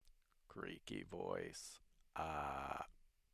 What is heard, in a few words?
creaky voice